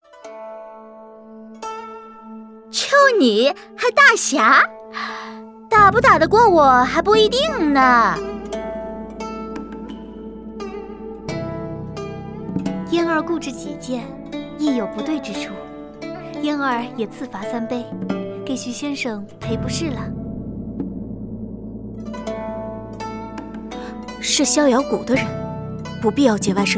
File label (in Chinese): 侠客-女